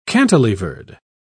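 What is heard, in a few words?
"cantilevered", British English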